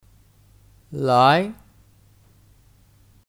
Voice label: "来", Lái; Kata kerja; Datang

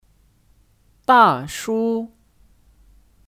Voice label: "大叔", Dàshū; Paman(Nama lain dari 叔叔 Shūshu)

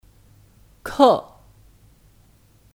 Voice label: "课", Kè

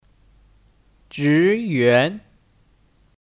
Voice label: "职员", Zhíyuán; (Kata benda): Karyawan

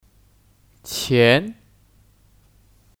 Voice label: "钱", Qián; Kata benda; Uang

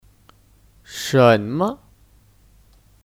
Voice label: "什么", Shénme; Kata tanya; Apa

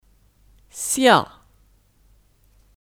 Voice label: "下", Xià; Preposisi; Bawah

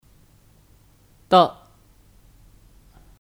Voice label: "的", De; Partikel; Untuk menyatakan kepunyaan

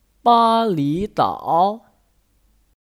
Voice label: "巴厘岛", Bālí dǎo; Kata benda; Pulau Bali

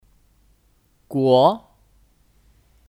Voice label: "国", Guó; Kata benda; Negara

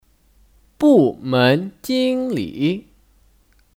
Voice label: "部门经理", Bùmén jīnglǐ

